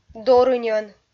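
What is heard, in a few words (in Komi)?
"дорыньӧн", Komi, female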